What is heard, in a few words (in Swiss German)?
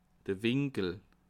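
"winkel", Bas Rhin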